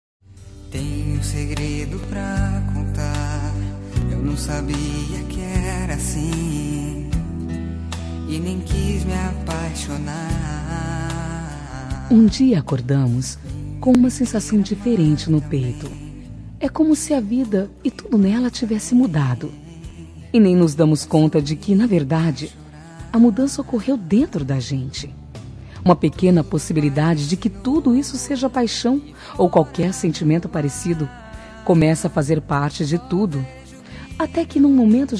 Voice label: Romântica Suave

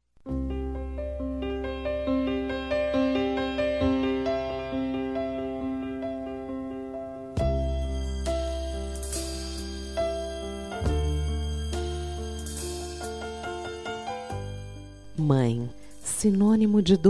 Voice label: Dia das Maes, F

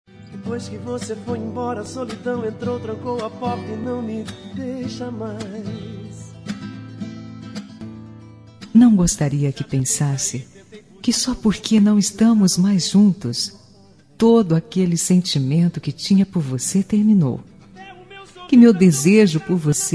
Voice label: Romântica pra Ex

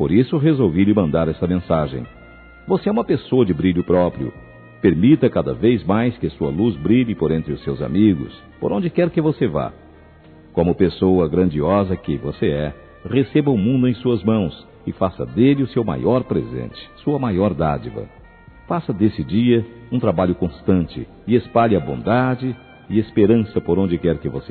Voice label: Aniv. de Genro Distante, M

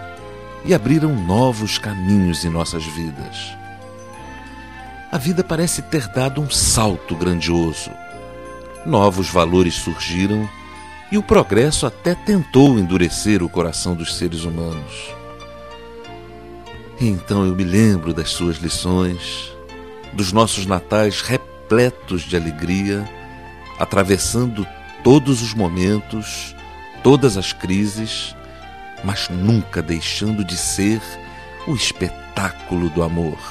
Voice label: Feliz Natal pra Pai, M, Na Magia do Papai Noel

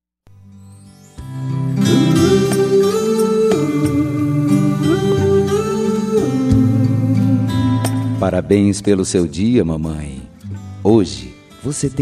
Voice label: Dia das Maes, M

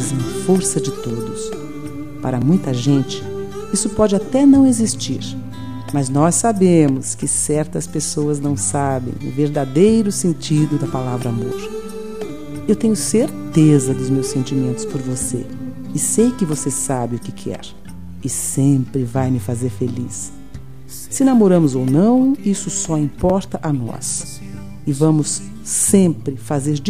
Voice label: Namorados pra Namoro GLS F

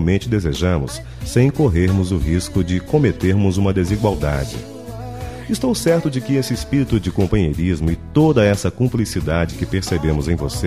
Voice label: Aniv. de Gêmeos M

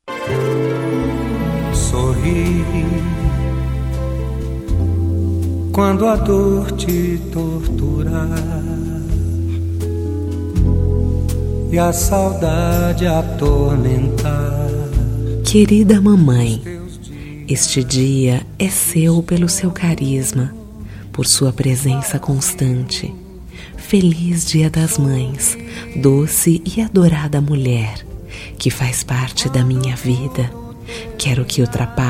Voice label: Dia das Maes, F

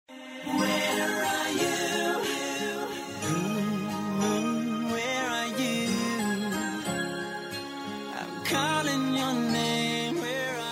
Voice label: Aniv. de Padrasto FM

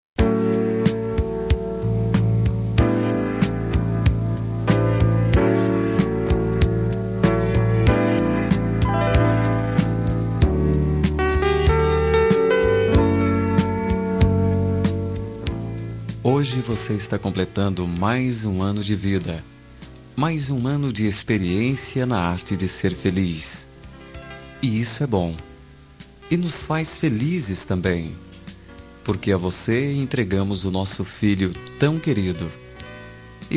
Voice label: Aniv. de Nora, M

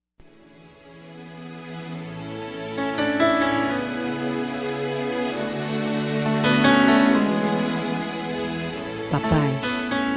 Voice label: Dia das Maes pra Pai Mae, F

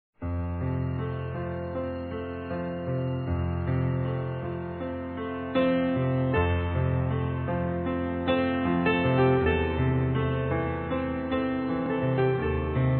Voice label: Namorados Com Agrad a Mens Recebida, M

